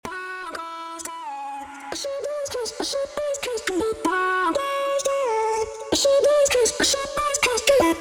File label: vox sweep; waves comp